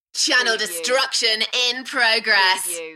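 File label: UK female, Enthusiastic, voice drop; Channel destruction in progress; beanstalk audio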